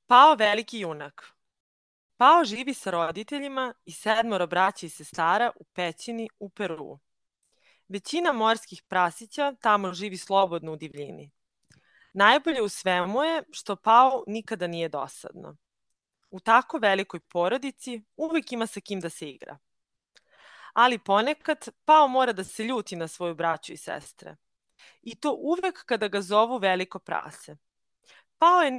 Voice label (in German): Serbisch